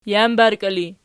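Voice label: "jambarkii" ergative, Archi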